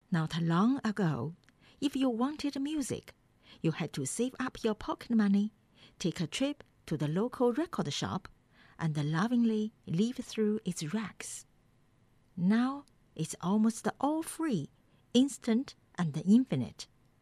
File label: English; GoLocalise